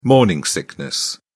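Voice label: "morning sickness", British English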